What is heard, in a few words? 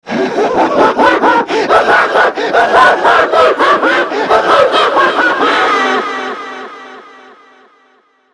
Taunt, Battle Barks; Warframe; Grineer Manic